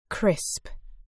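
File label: "crisp", British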